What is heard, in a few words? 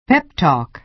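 "pep talk", American English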